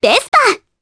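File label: Vox Japanese; Reina; Vespa; kingsraid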